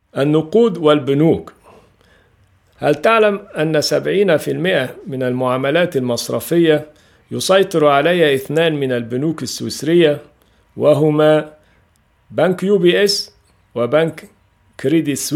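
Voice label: Arabic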